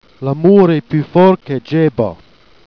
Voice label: "dgiex boeufs", Jèrriais